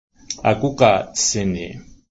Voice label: sundance circle camp, Blackfoot